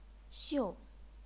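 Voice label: "xiu", chinese pinyin, fourth tone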